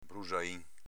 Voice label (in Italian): "brusain", dialetto veneto